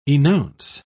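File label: "enounce", British English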